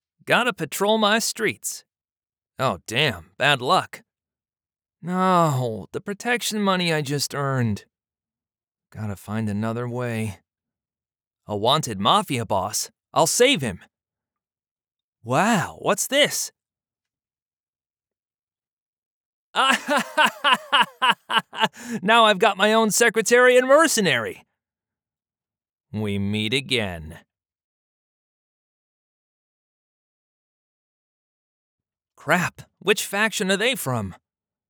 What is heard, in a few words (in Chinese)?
美式男游戏信息流